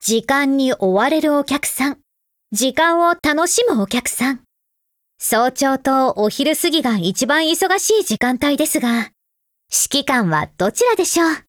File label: Daily, JP; Springfield